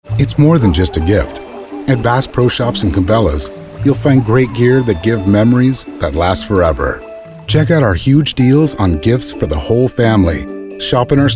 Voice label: Commercial Spot; BASS PRO SHOPS AND CABELAS